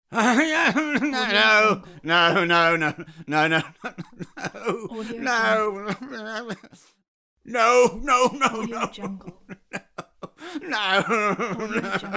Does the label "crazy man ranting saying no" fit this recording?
Yes